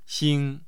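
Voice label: "xing1", Mandarin Chinese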